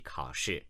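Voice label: "kao3 shi4", Chinese, male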